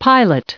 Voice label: "pilot", en-us

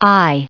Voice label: "eye", en-us, recite

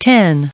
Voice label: "ten" en-us recite

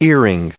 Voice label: "earring", en-us, recite

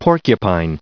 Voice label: "porcupine", en-us

